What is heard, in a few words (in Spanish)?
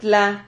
"tla", Silabas